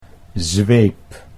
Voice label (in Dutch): "zweep", Dutch